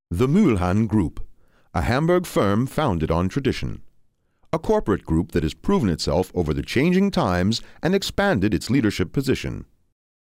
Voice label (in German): Imagefilm; LOFT VOICES